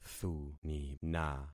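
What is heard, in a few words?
"thunina", concatenated syllables; Affixing experiment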